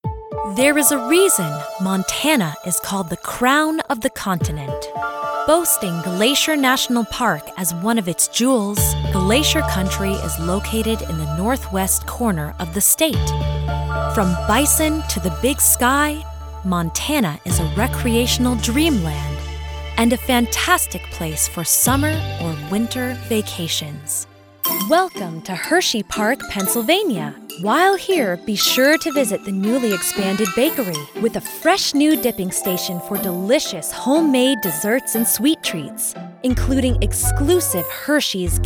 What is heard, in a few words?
Narration